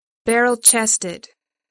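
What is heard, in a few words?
"barrel-chested", English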